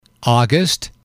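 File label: "august", english, male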